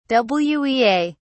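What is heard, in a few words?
"wea", British English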